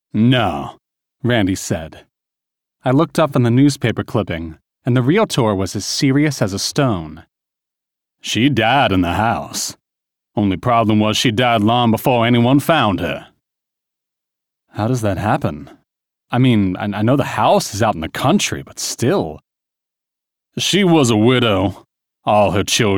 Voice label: audio books, American; USA

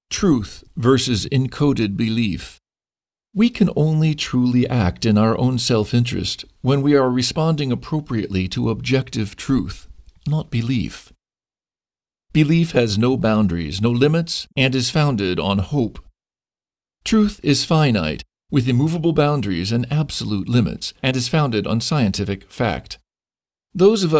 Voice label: audio books, American; Voice-over demos; USA

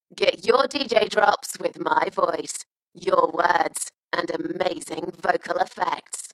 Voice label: Dalekness; Voice-over demos; DJ Imaging; drops; MediaMusicNow

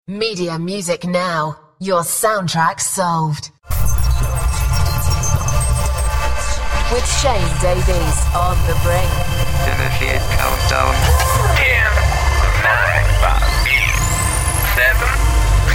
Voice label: DJ Intro; Voice-over demos

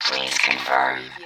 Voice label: Robot voice "please confirm"; robot voices; Robotic voice sound effects; lynne-publishing

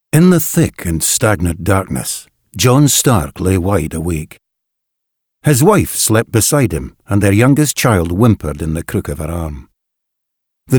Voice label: Audio books; England

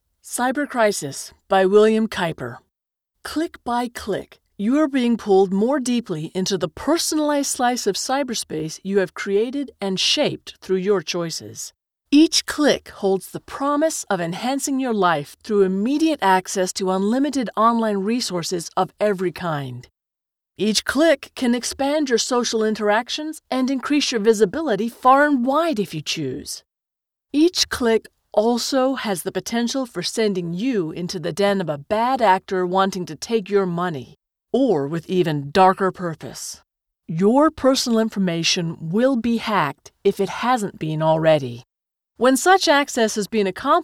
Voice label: Audio books American; Voice-over demos; USA

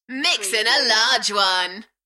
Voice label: UK female Enthusiastic; Mixin a large one; party; beanstalk audio

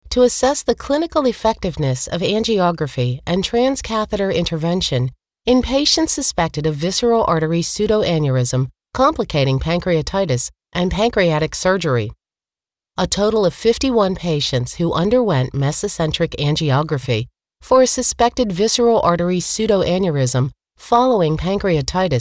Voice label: Audio books American; USA

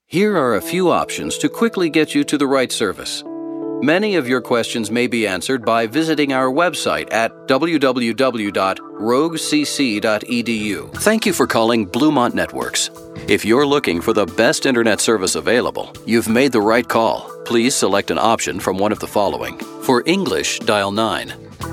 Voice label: On Hold, US; Voice-over demos

